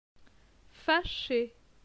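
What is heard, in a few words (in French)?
"Fache", French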